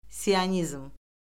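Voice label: "sionizm", Azerbaijani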